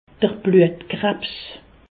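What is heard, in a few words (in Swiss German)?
"bluetkrabs", Alsatian; leucémie; medecine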